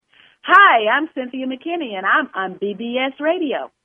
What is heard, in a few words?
bbs radio promo; cynthia mckinney